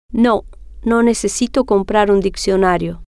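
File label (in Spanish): no Necesito Comprar Diccionario, spanish, female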